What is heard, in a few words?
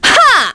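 Vox Skill, Korean; Naila; kingsraid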